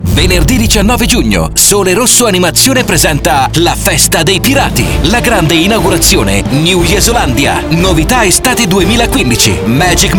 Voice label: SPOT; JESOLANDIA